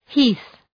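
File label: "heath", English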